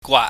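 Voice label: "gwaat", Cantonese, mid level tone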